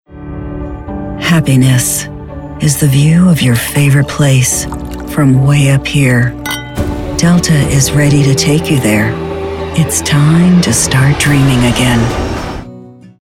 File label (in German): us, warme frauenstimme sprecherin